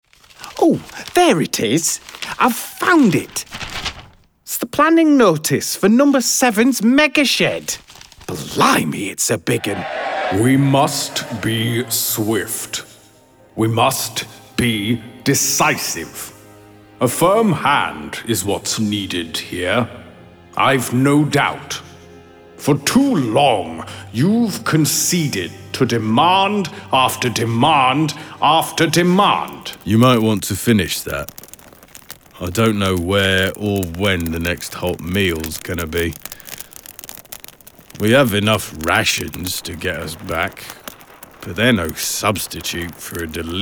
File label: Drama Reel